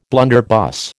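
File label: "blunderbuss", American English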